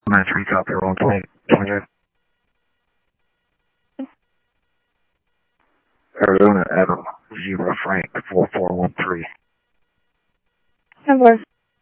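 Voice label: police department, scanner audio trunk; H-Scottsdale; SCTSDLE PD - PD2 ScottsdalePolice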